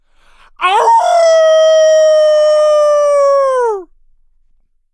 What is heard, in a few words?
touch, en; Cookie Run